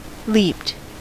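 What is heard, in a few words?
"leaped", US English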